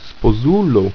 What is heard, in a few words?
"spozul", ido